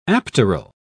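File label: "apteral", British English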